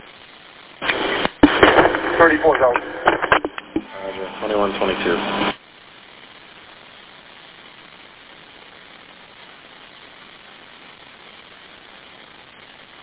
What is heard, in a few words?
Police Radio